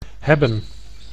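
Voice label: "hebben", Dutch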